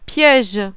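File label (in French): "PIEGE", French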